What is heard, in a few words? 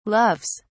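"loves", British English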